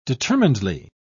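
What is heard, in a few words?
"determinedly", British English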